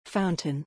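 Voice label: "fountain", English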